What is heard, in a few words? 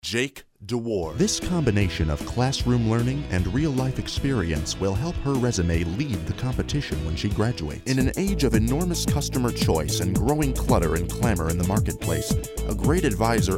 narration